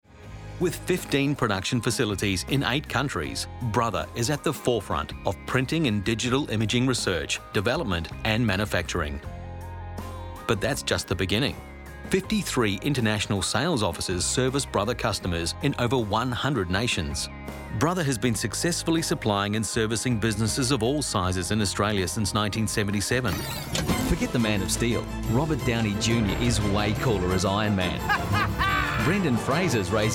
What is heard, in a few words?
corporate